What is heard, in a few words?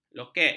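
"lokek", Malay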